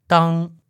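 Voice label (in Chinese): "dang", Mandarin Chinese, male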